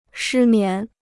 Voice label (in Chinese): "失眠", Chinese